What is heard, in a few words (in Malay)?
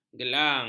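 "gelang", Malay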